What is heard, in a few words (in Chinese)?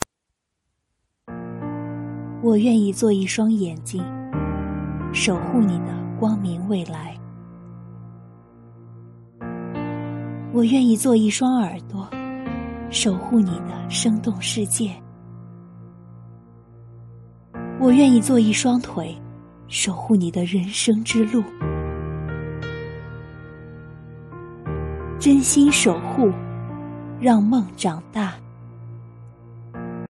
公益广告